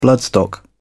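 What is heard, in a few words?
"bloodstock", British English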